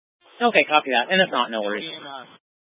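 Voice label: Incident Tac 1, Scanner audio recording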